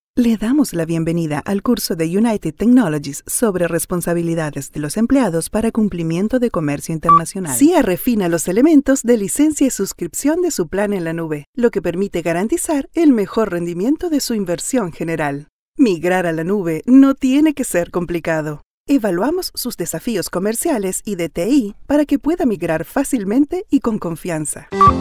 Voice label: ELEARNING, Latin Spanish Neutral Female, E-Learning; SIA ORACLE STOCKPLANCONNECT TECHSTREET ITC